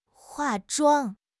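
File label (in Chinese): "化妆", Chinese; HSK; HSK6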